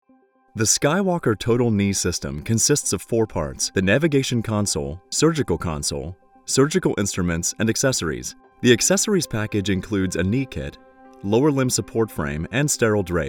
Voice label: medical narration; demo